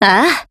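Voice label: AGREE, Japanese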